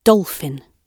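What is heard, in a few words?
"dolphin", British English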